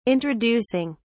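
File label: "introducing", English, uk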